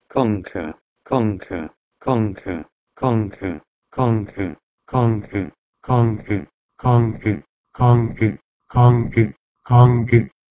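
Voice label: Proto-Indo-European konke to Hittite kanki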